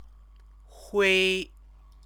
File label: "hui", Chinese; 灰; grey; stative verb